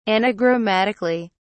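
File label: "anagrammatically", British English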